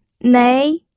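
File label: "nei", second tone, pinyin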